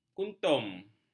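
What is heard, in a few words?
"kuntum", Malay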